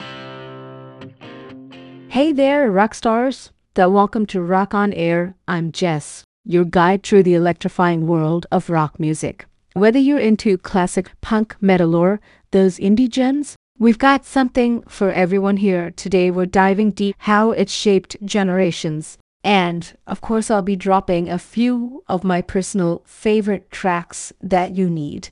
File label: American english podcast